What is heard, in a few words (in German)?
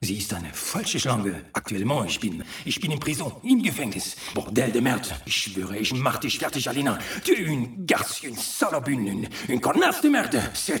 Hoerspielreihe Explicit; Alina Fox; Pierre Le Loup